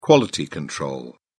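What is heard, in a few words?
"quality control", English, British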